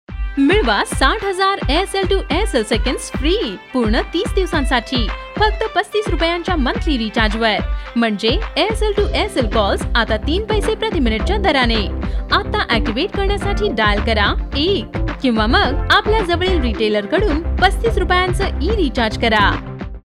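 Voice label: Marathi female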